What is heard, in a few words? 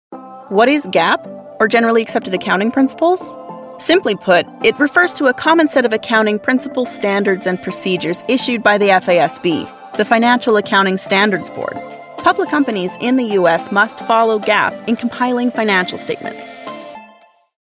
eLearning